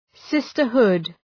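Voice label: "sisterhood", English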